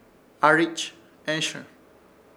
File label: "ARICH ESHƗR", Ishir Chamacoco; cazador